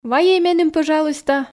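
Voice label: "вайӧй меным пӧжалуйста", Komi, female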